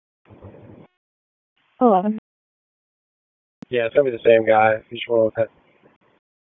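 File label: Police North District Dispatch, p25; Raleigh; wake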